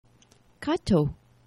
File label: "kato", Esperanto; geneva